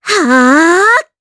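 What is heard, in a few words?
Vox Casting, Japanese; Kirze; kings raid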